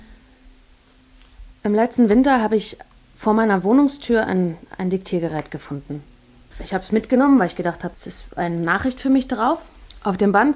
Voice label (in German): deutsch frauen hoerspiel; sprecher